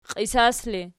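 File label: "qiisas" ergative, Archi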